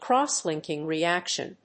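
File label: "crosslinking reaction", English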